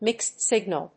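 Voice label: "mixed-signal", English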